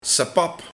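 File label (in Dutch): "Sepap", dialect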